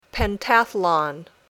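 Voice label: "Pentathlon", English word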